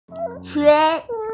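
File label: "qun2", Mandarin Chinese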